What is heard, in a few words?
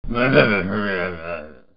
pirate angry